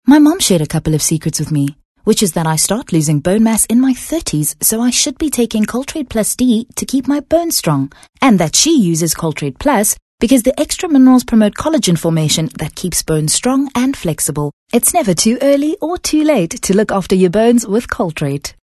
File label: English Conversational